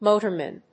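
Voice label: "motorman", English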